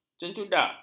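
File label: "cencudak", Malay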